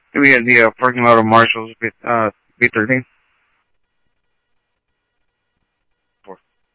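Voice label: police department, scanner audio trunk; SCTSDLE PD - PD2 ScottsdalePolice; ThompsonPeakHigh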